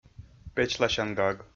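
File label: "печласян гаг", Komi, male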